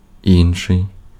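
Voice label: Ukrainian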